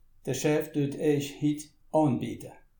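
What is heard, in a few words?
chef anbiete, Bas Rhin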